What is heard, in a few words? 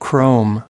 "chrome", us